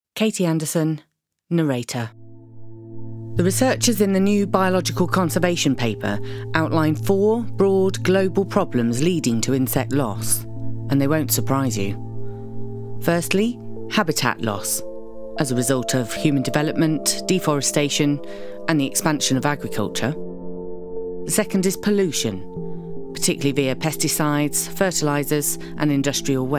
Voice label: Documentary Narration reel